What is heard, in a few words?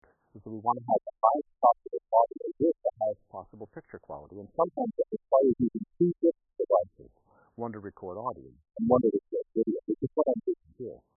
Arctic Radio; Final Cut Pro